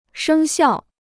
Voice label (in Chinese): "生效", Chinese